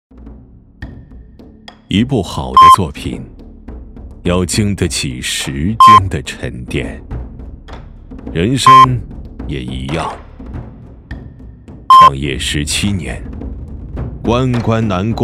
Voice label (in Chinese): 男 广告 自述; 酒水